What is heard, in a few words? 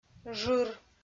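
"жыр", Komi-Zyrian, female; audio dict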